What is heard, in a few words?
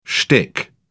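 "shtick", British English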